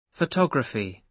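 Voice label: "photography", British English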